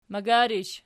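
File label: "magarish", nominative, Archi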